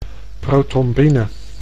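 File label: "protrombine", Dutch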